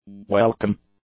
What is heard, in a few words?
"welcome", English; adjectives